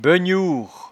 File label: "begnouere", patois jura